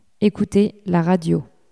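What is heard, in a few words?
"ecouter la radio", French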